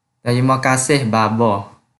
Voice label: Kelantan-Pattani Malay